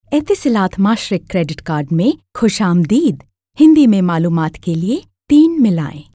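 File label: Urdu female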